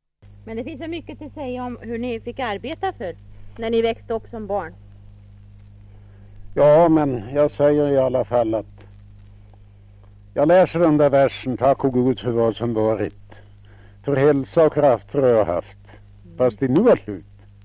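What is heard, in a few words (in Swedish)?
Fellingsbro Västmanland, dialekter, svenska sagor; Folkminnen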